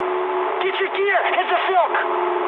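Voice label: English bailout mood high; War Thunder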